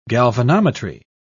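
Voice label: "galvanometry", English, British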